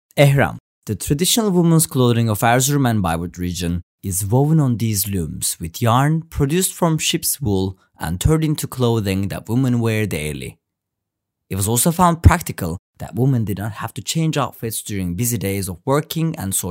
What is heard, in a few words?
english